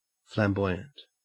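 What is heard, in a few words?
"flamboyant", Australian English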